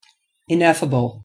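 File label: "ineffable", English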